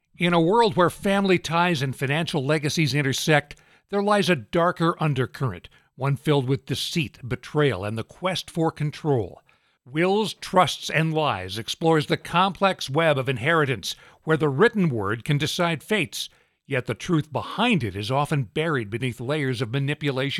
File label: TRAILER VOICEOVER